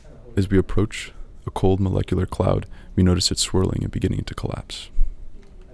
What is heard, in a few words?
narration